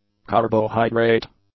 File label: "carbohydrate", English; nouns